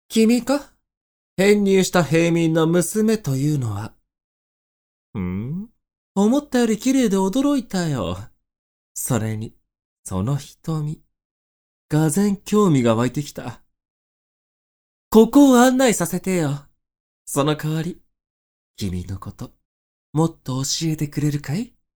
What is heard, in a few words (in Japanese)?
m cool